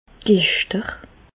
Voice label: "gechter", Bas Rhin; medecine; convulsions

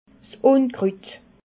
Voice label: "unkrut", alsace

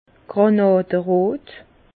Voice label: "granaderot", Bas Rhin; vin; grenat

